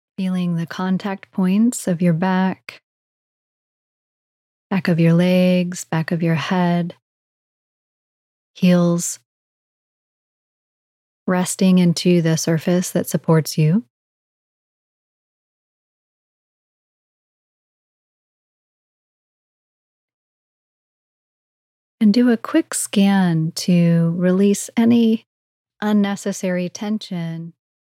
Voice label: mindfulness meditation, exploring awareness, sleep; Oura; guided sleep meditations for deep sleep